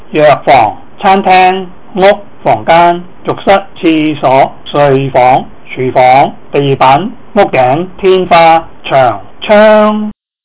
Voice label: cantonese places

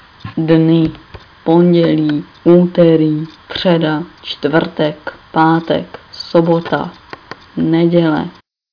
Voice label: czech

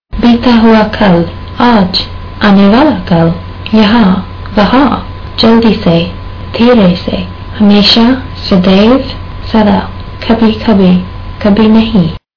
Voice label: hindi

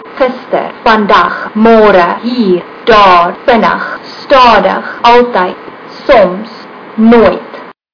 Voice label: afrikaans

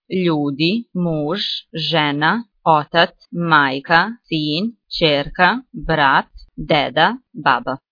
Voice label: bosnian; people

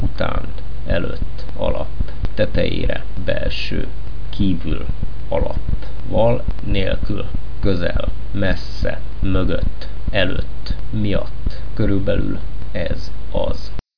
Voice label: hungarian words

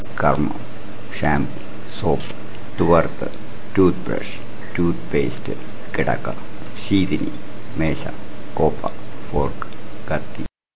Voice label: malayalam objects

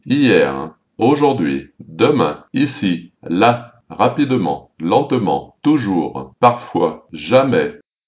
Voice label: french

